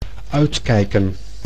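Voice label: "uitkijken", Dutch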